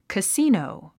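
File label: "casino", English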